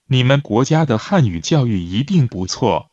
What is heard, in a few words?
Mandarin Conversation